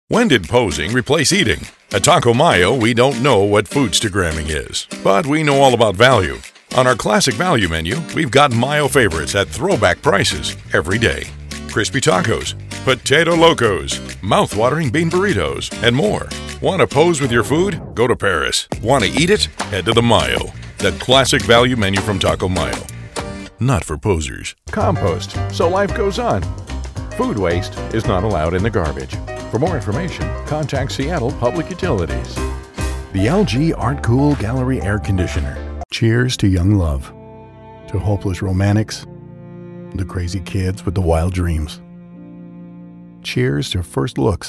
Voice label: us male; lektoring